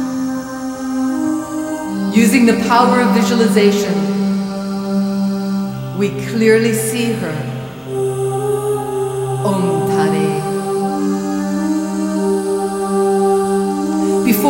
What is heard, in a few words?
Visualize Tara Meditation